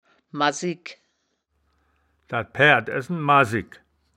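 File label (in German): "massigk", wittlicher woerterbuch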